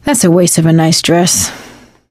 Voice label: wedding witch dead, survivor voice; Left 4 Dead 2; Source Engine; video games; producer

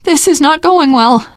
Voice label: reaction negative, teen girl; survivor voice

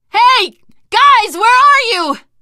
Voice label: lost call, teengirl; survivor voice

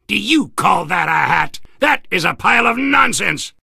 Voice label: soldier hat taunts

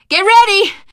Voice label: incoming, teengirl survivor voice; source engine; left 4 dead 2

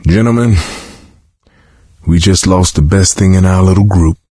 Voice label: survivor mourn producer, coach, survivor voice; source engine; left 4 dead 2

